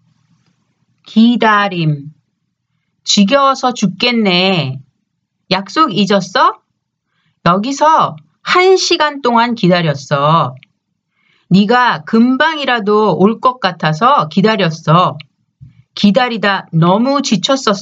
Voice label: conversation; K-Pop Volume 3